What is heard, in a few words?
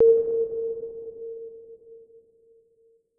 DX call